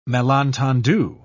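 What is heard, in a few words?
"malentendu", English, uk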